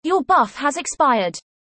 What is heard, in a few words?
Alert Buff Expired, en